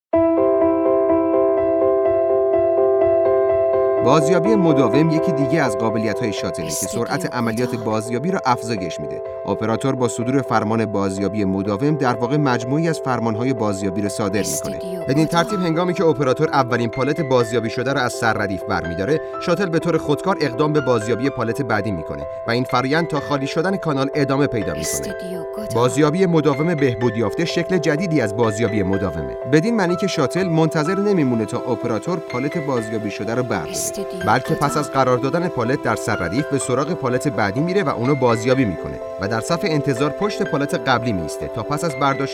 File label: documentary